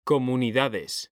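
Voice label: "COMUNIDADES", Spanish; mekanta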